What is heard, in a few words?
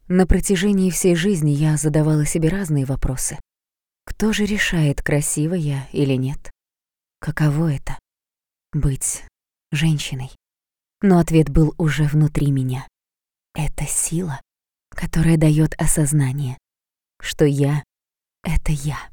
monolog